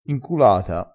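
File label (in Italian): "inculata", vocabolario dialettale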